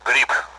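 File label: Speech Sound Russian Common AWACS Callsign; Mushroom; DCS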